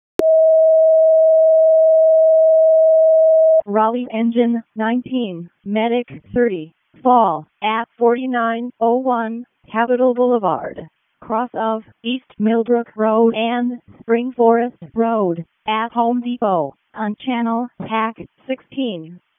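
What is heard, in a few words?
Raleigh Fire Department ALERT, p25; wake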